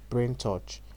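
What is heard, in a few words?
"brain touch", Nigerian Pidgin, male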